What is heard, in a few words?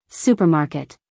"supermarket", us female